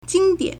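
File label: "jīngdiǎn", Chinese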